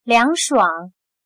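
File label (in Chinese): "凉爽" Mandarin Chinese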